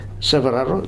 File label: "Severaro", futuna aniwa